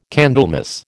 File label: "Candlemas", American